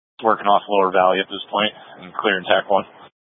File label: Tactical Incidents, scanner